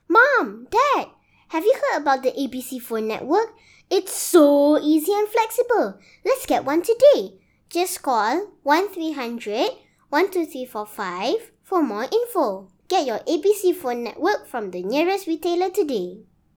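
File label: commerical english; ZBB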